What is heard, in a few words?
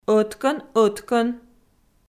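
"ӧткӧн-ӧткӧн", Komi, female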